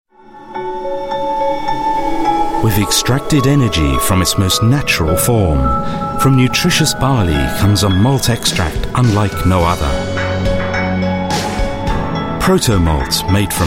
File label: UK English TVC; Milo; Proto Milk